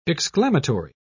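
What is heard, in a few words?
"exclamatory", British English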